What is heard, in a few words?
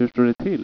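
"hur står", Swedish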